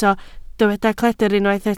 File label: "cledr", Welsh